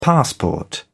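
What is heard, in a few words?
"passport", British English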